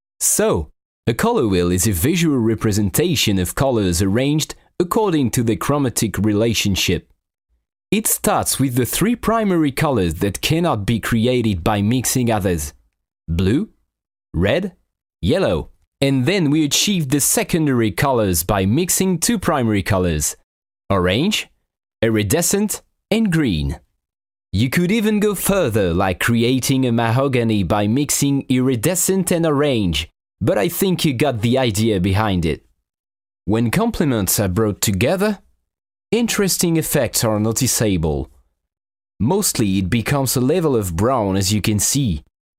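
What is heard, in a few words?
M, French, male, accent eng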